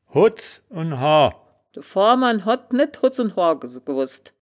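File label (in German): "hodds unn hoar", wittlicher woerterbuch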